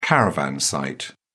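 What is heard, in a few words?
"caravan site", English, British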